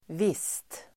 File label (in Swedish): "visst", Swedish